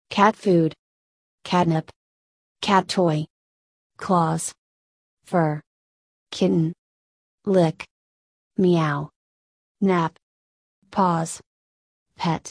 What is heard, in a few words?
usa